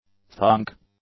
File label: "thunk", English; nouns